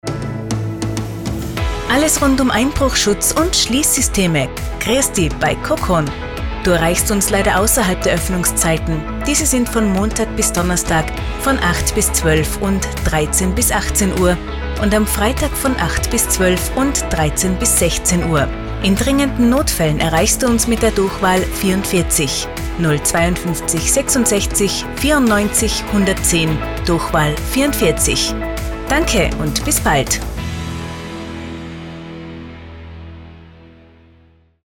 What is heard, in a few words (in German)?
Nachtansage; Kokon; telefonansagen sonstige